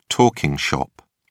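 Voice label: "talking shop", British English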